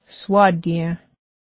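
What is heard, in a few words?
you all sit down, Cayuga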